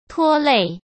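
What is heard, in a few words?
"拖累", Mandarin Chinese; hsk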